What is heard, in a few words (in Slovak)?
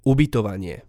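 "ubytovanie", Slovak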